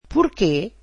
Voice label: portuguese why

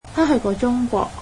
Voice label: cantonese she visited China

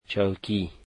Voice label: "chair", dari

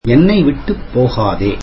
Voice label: tamil dont leave me

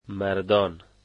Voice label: men, dari

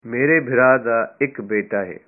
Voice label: my brother has one son, punjabi

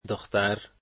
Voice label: "daughter", Dari

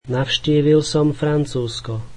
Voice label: slovak I visited France

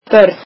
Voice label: "children", Icelandic

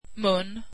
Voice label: swedish mouth

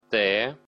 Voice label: belarusian alphabet t

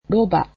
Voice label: donkey, japanese

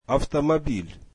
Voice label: russian car

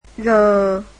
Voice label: vietnamese alphabet g

